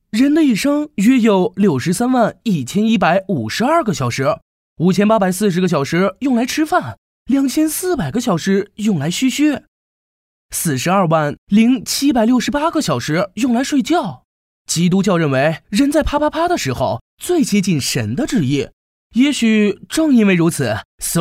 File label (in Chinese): 男, 配音; 飞碟说